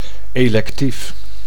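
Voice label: "electief", Dutch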